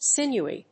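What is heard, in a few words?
"sinewy", English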